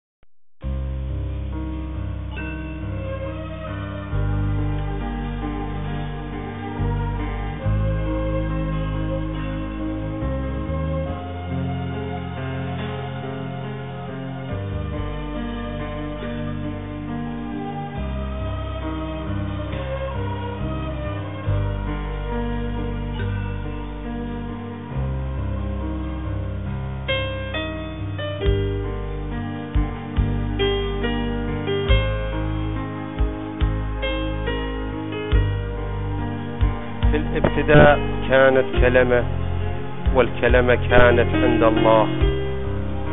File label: MANDEAN ahvaz accent